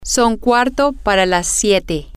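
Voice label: Spanish, female; it's half past seven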